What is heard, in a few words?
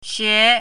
"xie2", Chinese pinyin